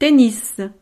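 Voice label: "tennis", French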